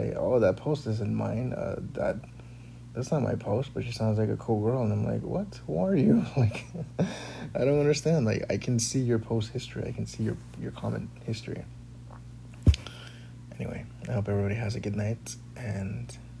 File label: Voice Chat Rant